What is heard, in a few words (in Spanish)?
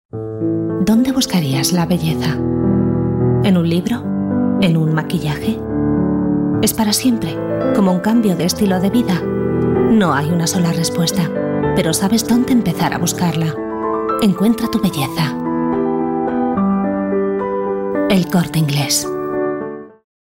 Femenino